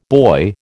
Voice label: "boy", American